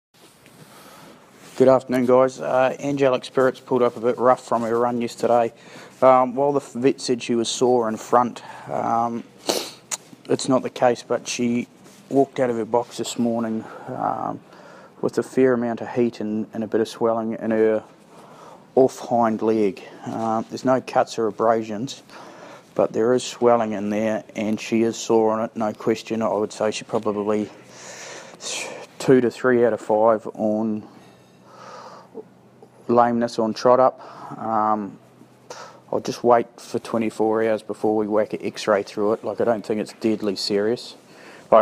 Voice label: voice note; Angelic Spirit (NZ)